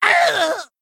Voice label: Male Kobold Death

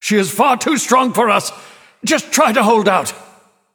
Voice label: Male Nightborne

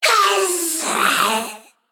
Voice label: Female Naga Death

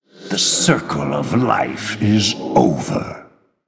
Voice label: Male Night Elf

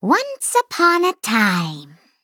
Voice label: Female Gnome

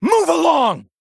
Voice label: Male Blood Elf Attack

